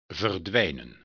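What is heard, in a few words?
"verdwijnen", Dutch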